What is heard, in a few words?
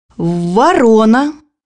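"crow", Russian; bukvar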